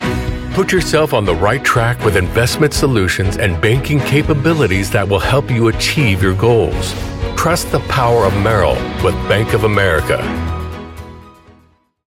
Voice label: finance informative sincere hones; Merrill-BofA

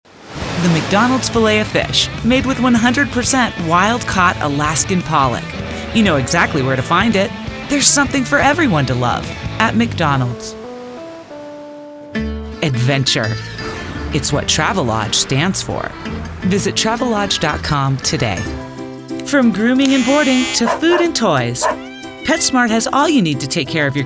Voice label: commercial VO

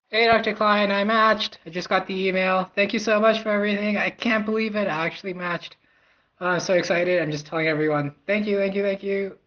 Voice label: voice message; CARMS 2021 matched

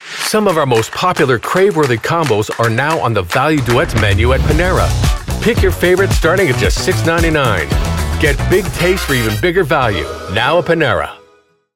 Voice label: restaurant relatable inviting youthful; Panera

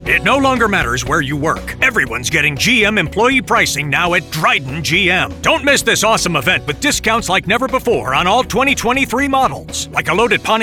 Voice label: Hard Sell

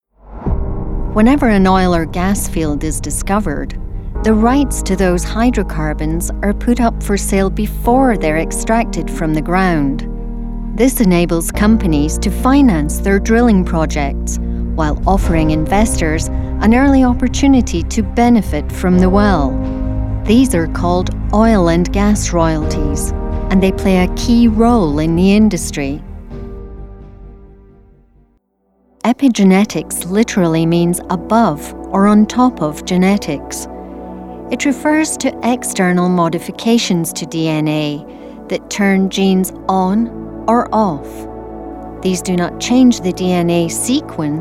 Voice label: Corporate Narration

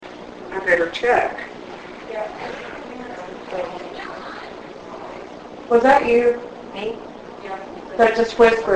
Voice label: whisper; jefferson hotel

